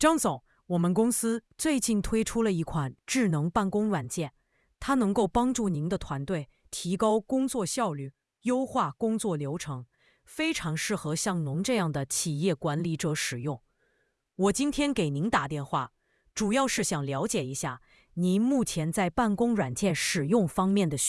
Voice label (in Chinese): 电话推销